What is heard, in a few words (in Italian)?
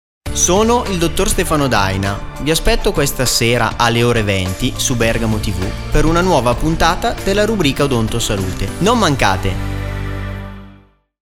Spot Radio Annuncio Trasmissioni